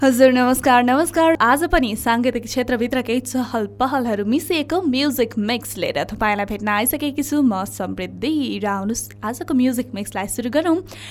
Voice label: Nepalese Female